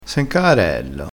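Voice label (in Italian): "caarello", vocabolario dialettale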